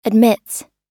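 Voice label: "admits", American English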